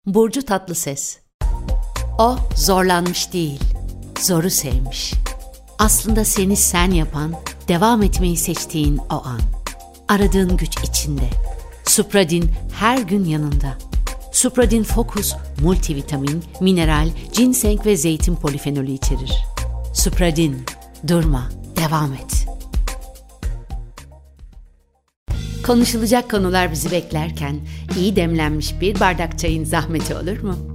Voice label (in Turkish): turkce kadin